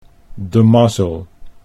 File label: "de mazzel", Dutch